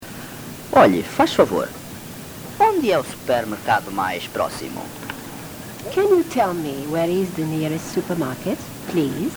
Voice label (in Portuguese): "onde superm", portuguese